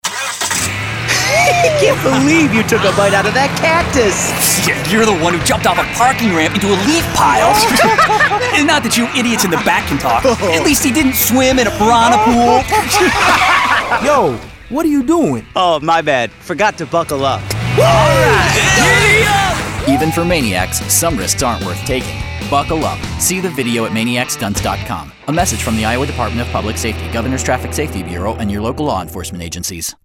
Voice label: radio